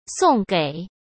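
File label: "送给", Mandarin Chinese; hsk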